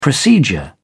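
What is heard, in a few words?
"procedure", English, British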